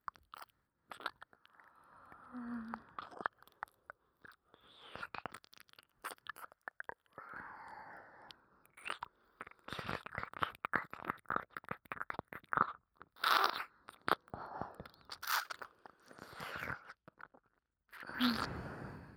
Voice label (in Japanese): アンニュイ系彼女の濃厚耳舐めＨ, ASMR